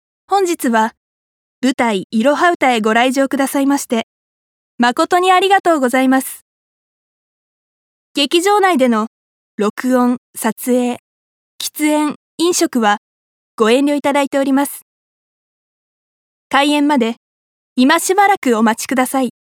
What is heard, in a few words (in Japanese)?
場内アナ